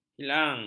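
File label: "hilang", Malay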